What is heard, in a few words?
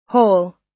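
"hole", US English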